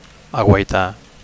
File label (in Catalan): "aguaitar", Catalan; to spy on